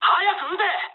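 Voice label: Japanese attack position mood high; War Thunder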